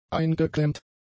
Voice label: "eingeklemmt", German; adjectives